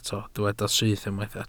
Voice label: "syth", Welsh